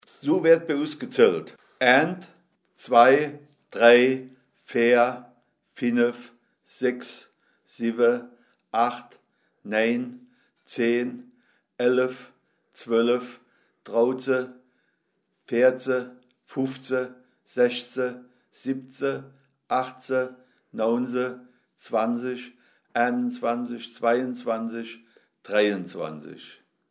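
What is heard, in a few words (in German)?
Zahlen, O-Ton Hunsrück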